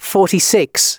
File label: announcements male